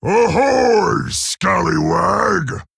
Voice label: Male Orc Greetings